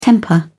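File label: "temper", British English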